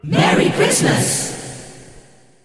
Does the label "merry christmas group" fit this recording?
Yes